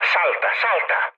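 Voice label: Italian bailout mood low, speech; War Thunder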